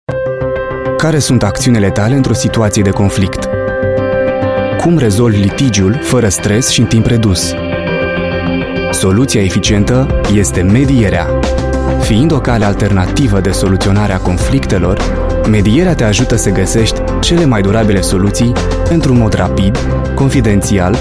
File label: Romanian Corporate